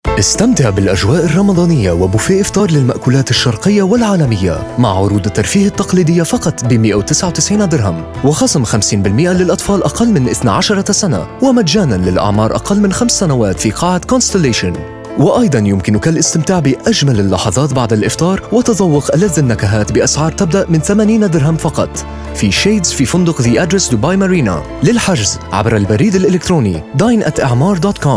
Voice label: Radio Announcer; The Address Dubai